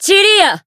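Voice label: Voice attack, Japanese; hinagiku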